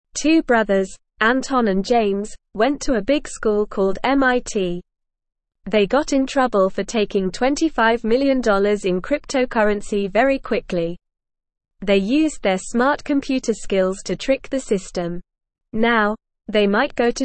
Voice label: English Beginner NORMAL Reading; Brothers steal lots of money from computer

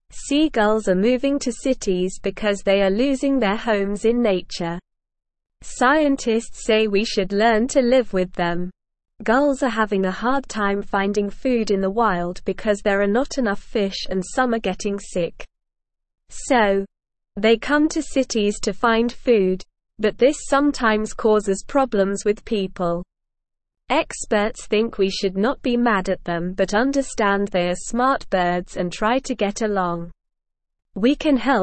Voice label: English SLOW Reading; Seagulls are moving to cities and need our help

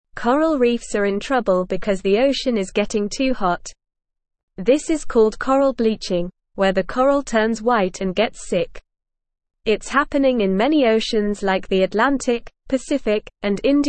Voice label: English NORMAL Reading; Hot Ocean is Making Coral Reefs Sick

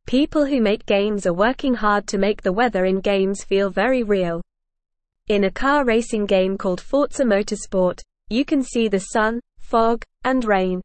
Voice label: English Beginner NORMAL Reading; Games with Real Weather Make Playing More Exciting; English Newsroom

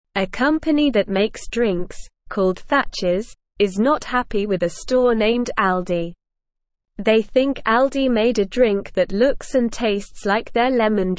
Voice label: English Newsroom Beginner SLOW Reading; Thatchers vs Aldi Who Made the Lemon Drink

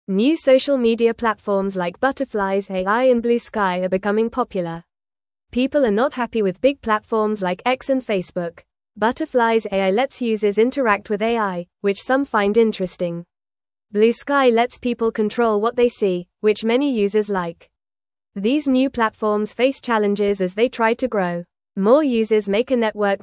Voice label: English Newsroom Lower Intermediate FAST Reading; New Apps Are Here to Change Social Media

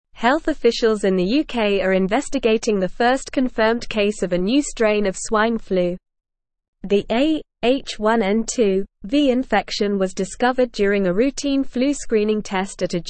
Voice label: English Advanced NORMAL Reading; English Newsroom; UK Detects First Case of New Swine Flu Strain

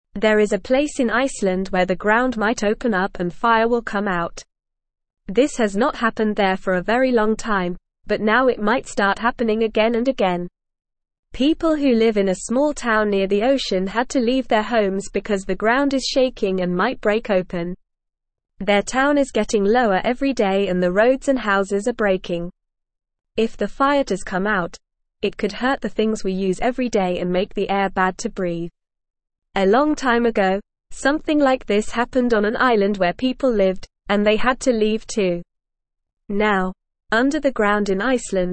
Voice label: English Beginner NORMAL Reading; English Newsroom; Fire Danger in Iceland People Forced to Leave

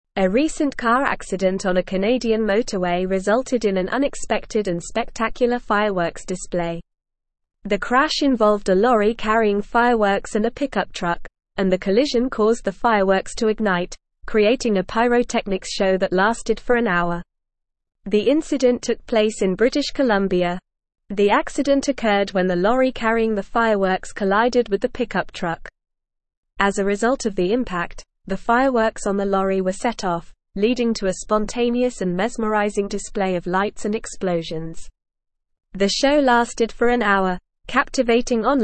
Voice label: English Newsroom Advanced NORMAL Reading; Car Crash Ignites Fireworks Creates Spectacular Show

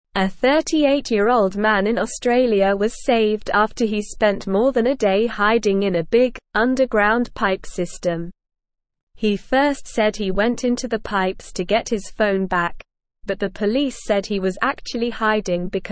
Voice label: English Newsroom Lower Intermediate SLOW Reading; Man stuck in pipe after hitting police car

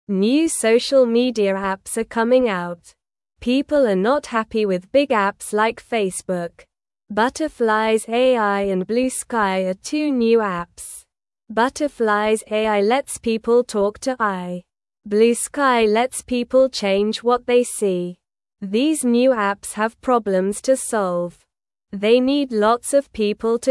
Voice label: English Newsroom Beginner SLOW Reading; New Apps Are Here to Change Social Media

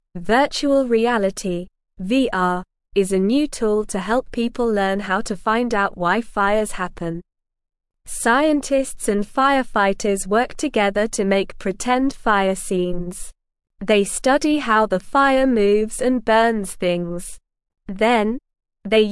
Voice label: English Beginner SLOW Reading; Learning About Fires with Virtual Reality Fun

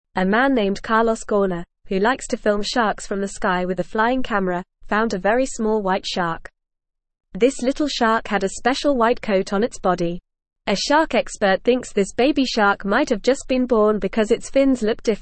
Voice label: English Beginner FAST Reading; Carlos Finds Special White Baby Shark with Flying Camera

